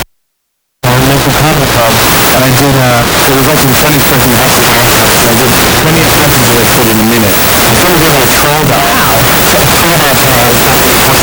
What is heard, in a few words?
static voice